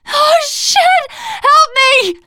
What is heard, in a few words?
ledge hang end, teengirl; player; survivor; voice